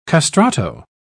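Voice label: "castrato", British English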